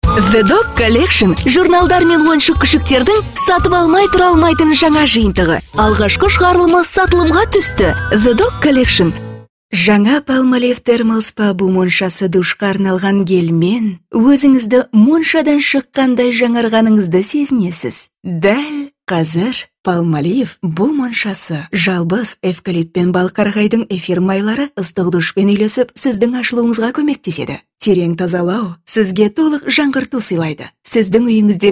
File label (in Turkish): Kazakca Kadin, Kadın Ses; seslendirme